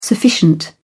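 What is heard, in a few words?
"sufficient", British English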